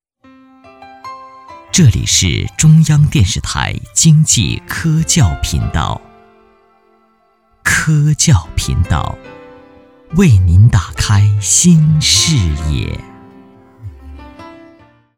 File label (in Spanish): Locutor varón chino